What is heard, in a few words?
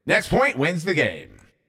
Next Point Wins 2 Flags, Announcer